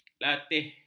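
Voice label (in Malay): "latih", Malay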